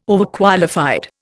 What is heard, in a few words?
"Overqualified", Indian